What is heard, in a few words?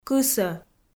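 "кысӧ", Komi, female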